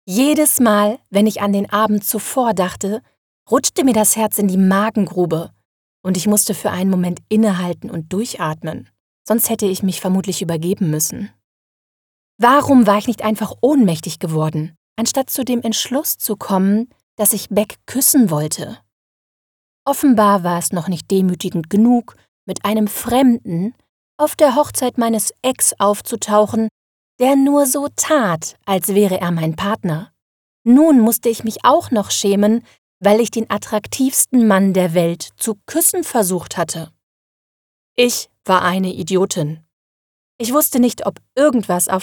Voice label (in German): Hoerbuch New-Adult, hoerbuchsprecherinnen